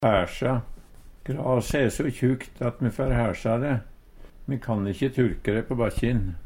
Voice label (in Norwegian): "hæsja", Numedalsmål; Grase e so tjukt at me fær hæsja de. Me kan ikkje turke de på bakkjin.